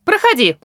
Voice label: back off, characters voice human woman threat; Stalker Anomaly